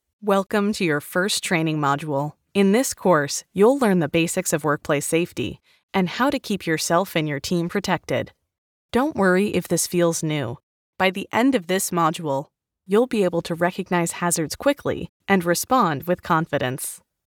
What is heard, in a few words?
Safety Training Sample; elearning corporate training